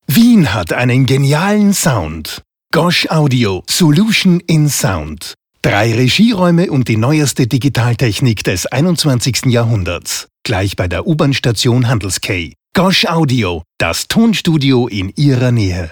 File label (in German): plakativ